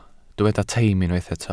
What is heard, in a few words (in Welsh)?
"teim" Welsh